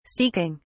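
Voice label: "seeking", British English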